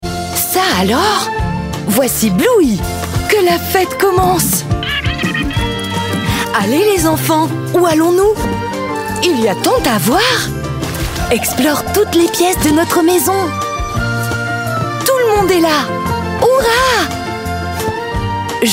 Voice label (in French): Bande Annonce jeux enfants, voix feminine; BLUEY